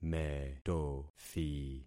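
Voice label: "metothi", concatenated syllables; Affixing experiment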